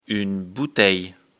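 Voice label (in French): "bouteille", French; afrikalan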